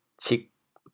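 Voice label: "cig4", Teochew, chaoyang; 揭阳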